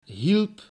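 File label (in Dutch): "hielp", Dutch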